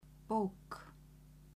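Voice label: "bok", Icelandic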